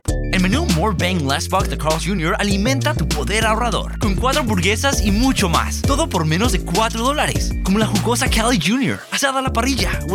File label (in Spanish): Español Comercial